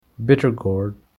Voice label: Bittergourd, girl

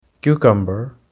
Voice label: Cucumber, girl

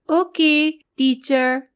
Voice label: girl; grade3

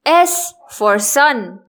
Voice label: sun, english; grade1; identifying letters

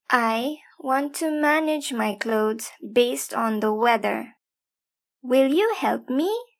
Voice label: speech, weather

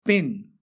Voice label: "pin", english; grade1; short vowels

